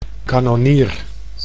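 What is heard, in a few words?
"kannonier", Dutch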